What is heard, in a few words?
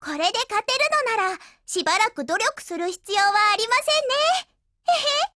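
WIN Japanese, Voice; GF Alarm; Derringer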